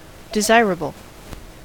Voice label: "desirable", us